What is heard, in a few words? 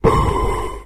Voices Zombie; guns n zombies